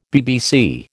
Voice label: "BBC", American

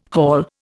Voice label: "haul", Indian

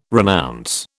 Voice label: "renounce", British

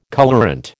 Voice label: "colourant", American

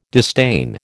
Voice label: "disdain", American

